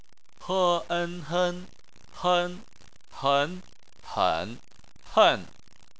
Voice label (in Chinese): "hen", Chinese